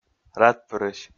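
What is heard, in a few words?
"радпырысь", Komi, male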